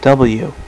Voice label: "w" male, voice alphabet; Web Clip Empire 150,000

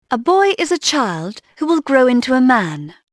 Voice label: boy; Dorling Kindersley My First Incredible Amazing Dictionary

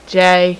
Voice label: "j" female; alphabet; Web Clip Empire 150,000

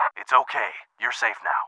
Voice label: come, English

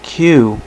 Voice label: "q" male, alphabet; Web Clip Empire 150,000

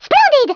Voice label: "brilliant", French

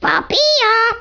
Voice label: "Missed", Danish

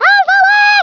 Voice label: "orders", Speech, Dutch; International; 11khz

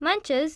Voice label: munches; The Interactive Alphabet

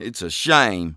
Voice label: conversation, English Voice

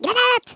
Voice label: grenade, Norwegian; Speech; 11khz

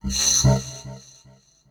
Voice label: VOICEFX TEKNO 140, Techno, Voice

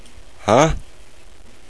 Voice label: HUH?; CD Cache v1.4a; HyperCard

